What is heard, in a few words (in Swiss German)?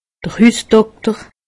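"hussdokter", Alsatian; medecine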